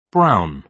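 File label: "brown", British English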